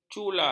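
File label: "cula", Malay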